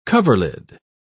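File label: "coverlid", British English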